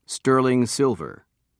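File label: "sterling silver", British English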